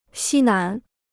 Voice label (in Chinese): "西南", Chinese